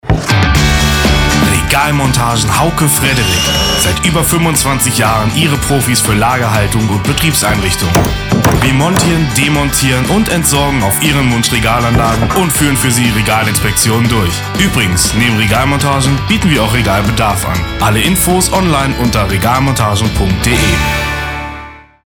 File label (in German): radiospot